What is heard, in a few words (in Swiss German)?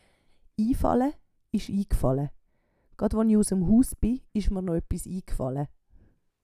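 "iifale", Swiss German; Wortschatz Kapitel 8; Kapitel 8 Zusatzwortschatz 4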